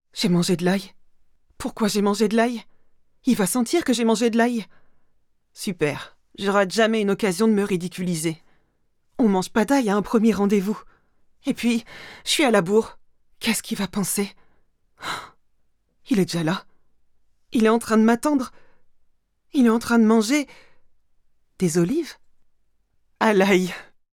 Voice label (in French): pub, voix off; jeu meetic